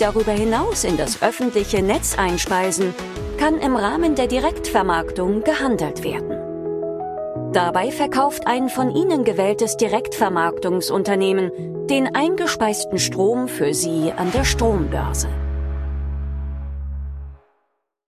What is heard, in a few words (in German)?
Imagefilm PV Anlage